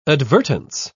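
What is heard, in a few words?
"advertence", British English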